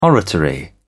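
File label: "oratory", English, British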